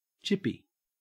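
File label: "chippy", Australian English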